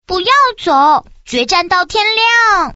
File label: quick chat voice, girl